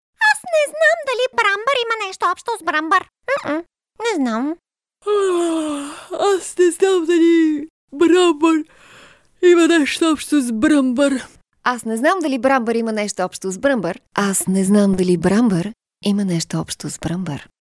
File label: female bulgarian voice over character